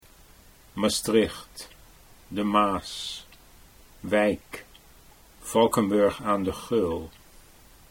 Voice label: Maastricht De Maas, Dutch